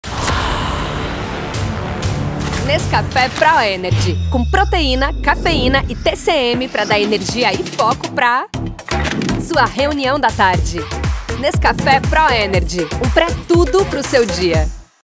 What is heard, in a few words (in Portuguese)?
Locutora; Nescafe Pro Energy